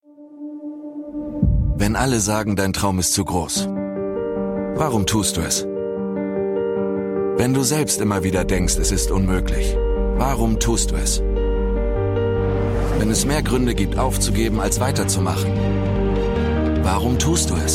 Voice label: TV Commercial; CUPRA Formentor; LOFT VOICES